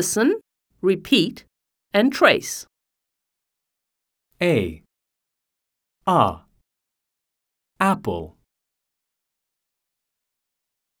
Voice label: My alphabet book American; Smart Book 1